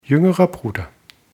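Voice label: "jüngerer bruder", German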